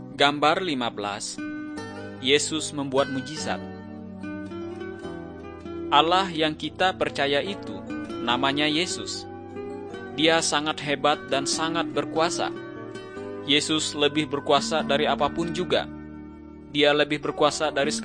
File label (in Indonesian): kabar baik good news bahasa indonesia